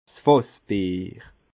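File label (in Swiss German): "fassbier", Bas Rhin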